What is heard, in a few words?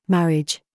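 TRAP, words, British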